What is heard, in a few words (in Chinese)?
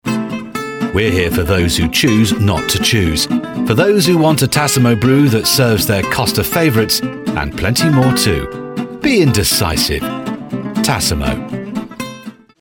外籍 轻快语调; 英国广播公司电台、电视台和英式英语配音